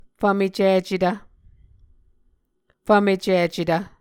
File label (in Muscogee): "fvmecicetv", Muscogee